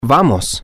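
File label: Let's go, Spanish, male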